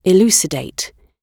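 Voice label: "elucidate", British English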